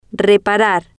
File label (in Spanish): "reparar", Spanish